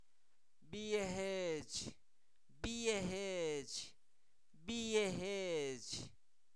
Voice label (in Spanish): ciruela, Zapoteco, santo domingo albarradas; comestibles; INALI